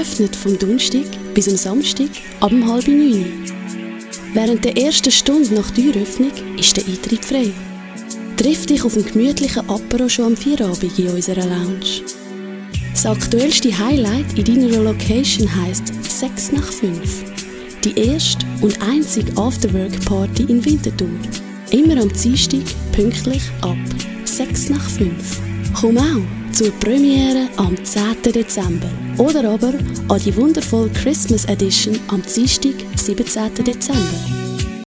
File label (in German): Bolero, CH-DE; sprecher; profi